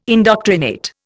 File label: "indoctrinate", Indian